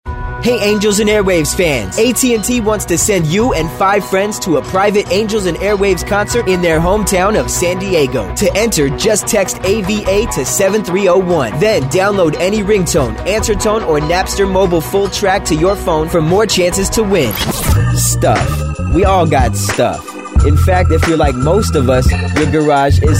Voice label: Millennial

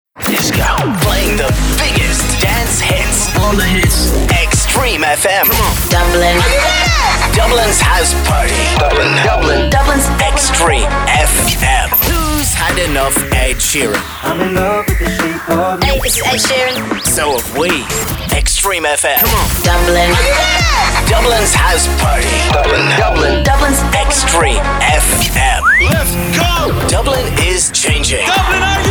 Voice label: Radio TV Imaging

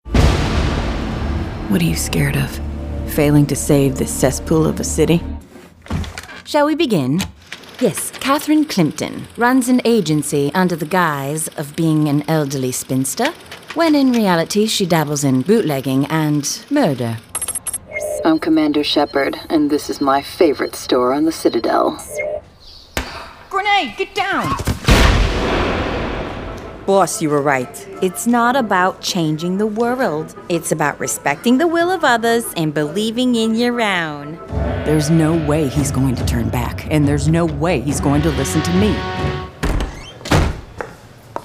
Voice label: Character

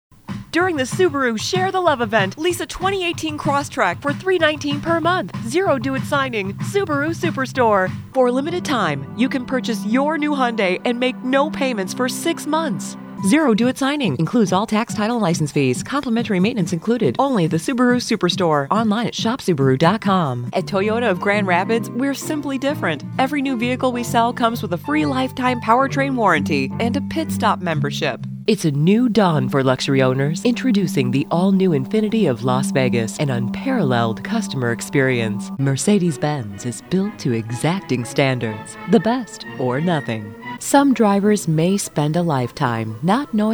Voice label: Car Spot

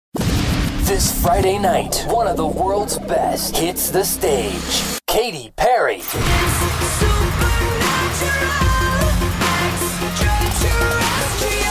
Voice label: Concert Voice

